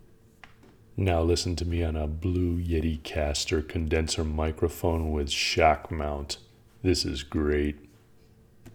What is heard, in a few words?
Radio Voice, Microphone Comparison